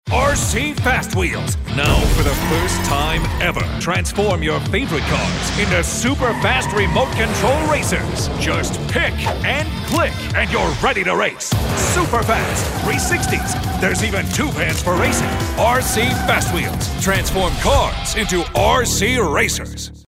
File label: Hard Sell